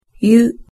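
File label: "yu", Japanese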